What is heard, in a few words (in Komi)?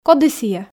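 коді сійӧ, Komi, female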